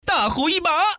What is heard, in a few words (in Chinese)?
dahu, putonghua, man; mahjong paohuzi